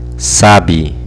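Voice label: "sabe", Spanish; fonetica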